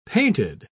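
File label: "painted", British English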